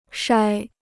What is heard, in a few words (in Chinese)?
"筛", Chinese